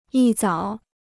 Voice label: "一早", Chinese

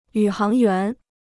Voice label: "宇航员", Chinese

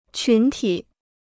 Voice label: "群体", Chinese

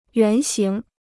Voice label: "原型", Chinese

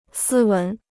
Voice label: "斯文", Chinese

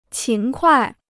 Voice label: "勤快", Chinese

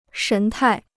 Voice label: "神态", Chinese

